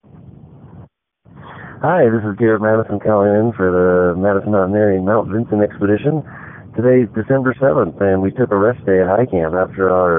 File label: voicemail